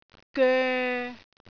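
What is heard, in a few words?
"goe3", Cantonese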